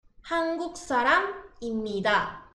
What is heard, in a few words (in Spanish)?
coreano persona